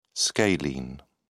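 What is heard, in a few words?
"scalene", British English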